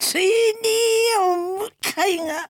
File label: necromancer oldwoman faint, voice